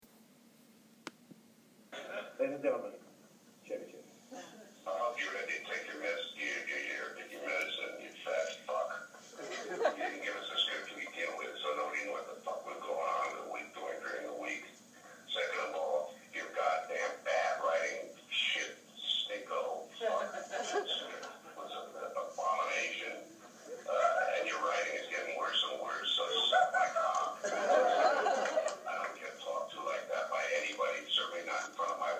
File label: voicemail; chevy chase